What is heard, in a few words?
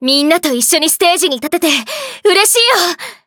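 start, Japanese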